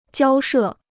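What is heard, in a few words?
"交涉", Chinese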